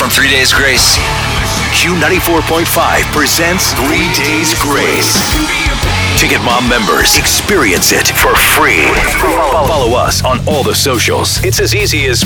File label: radio imaging men rock